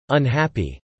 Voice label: "unhappy", English